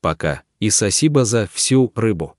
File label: "so long", Russian